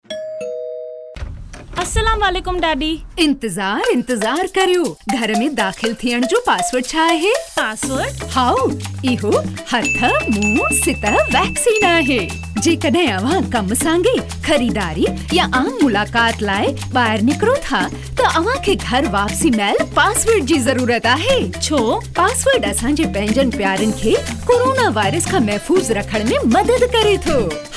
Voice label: Juice Seller Sindhi